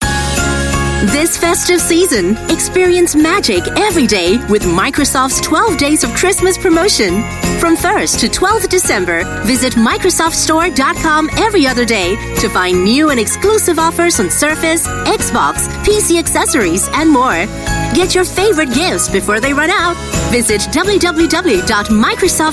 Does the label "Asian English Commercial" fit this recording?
Yes